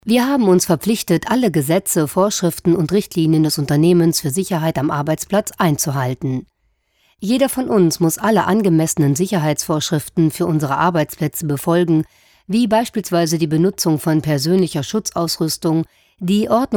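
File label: German elearning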